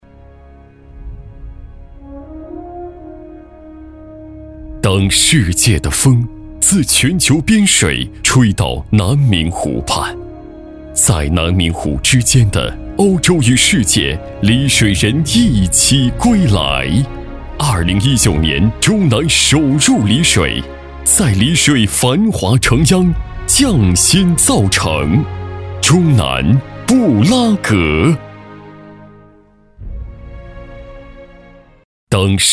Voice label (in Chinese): 男 专题宣传片大气; 中南·布拉格